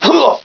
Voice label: pain; svencoop; barney